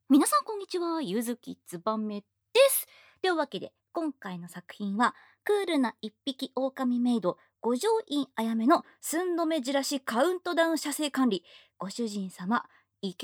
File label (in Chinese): 自由谈话; 冷酷孤狼女仆五条院绫芽的寸止焦躁射精管理